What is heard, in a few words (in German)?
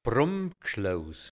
"Brummgschlouß", Burgenland Dialekt hianzisch; Brunnenhäuschen